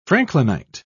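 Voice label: "franklinite", English, British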